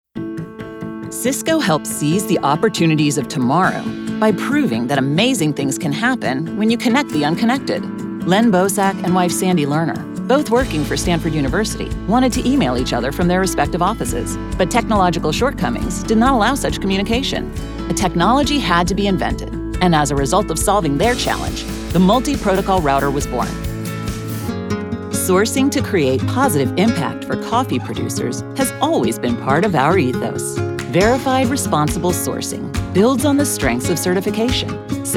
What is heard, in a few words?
CORPORATE MIX